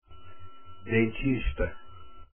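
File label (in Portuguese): "dentista" Portuguese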